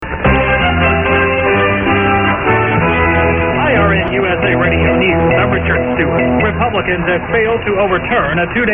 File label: radio news; irn usa radio news; wcrn